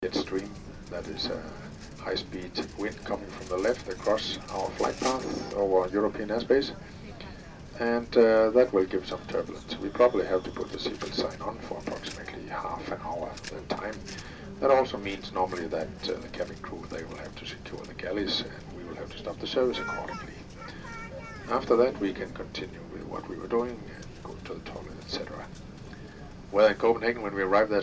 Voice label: English Speech